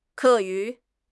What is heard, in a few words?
"ke4 yu2", 汉语口语; 汉语口语新时代-初级下